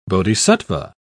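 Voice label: "bodhisattva", British English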